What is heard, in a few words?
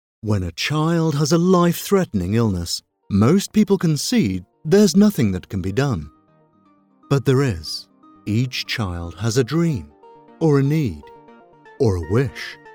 corporate adverts charity, Commercial British Voiceover